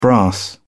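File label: "brass", British English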